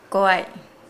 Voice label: "who", Nukuoro